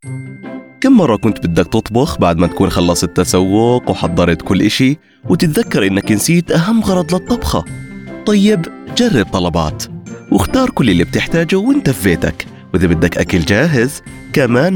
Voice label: Commercial Conversational Friendly Jordanian Arabic; Talabat